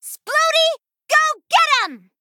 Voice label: Female Gnome